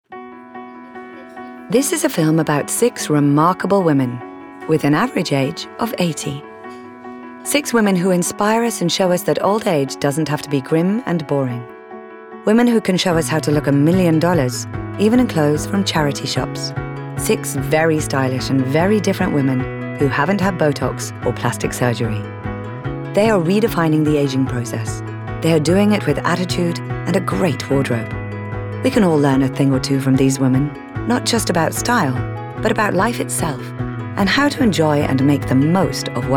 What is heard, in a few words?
Documentary